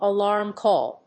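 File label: "alarm call", English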